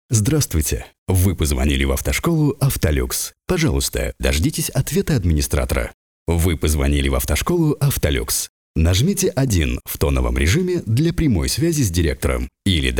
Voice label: IVR